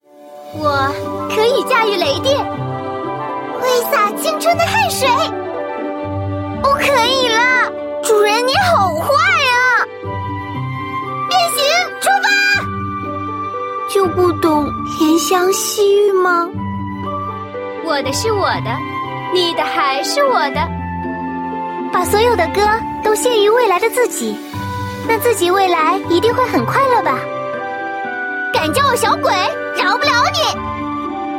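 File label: girl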